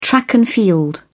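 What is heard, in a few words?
"track and field", British English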